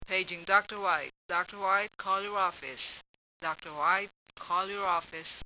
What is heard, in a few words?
Paging Doctor, hospital; Hospitals; Hospital Sound Effects